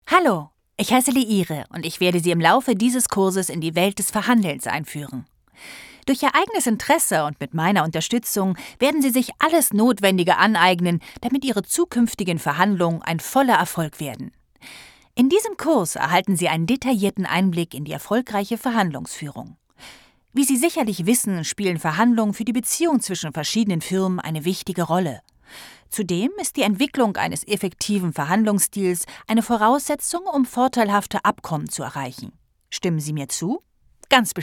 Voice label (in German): elearning, deutsch frauen